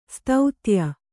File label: "ಸ್ತುತ್ಯೆ", Kannada